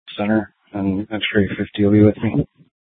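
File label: police department Dispatch, scanner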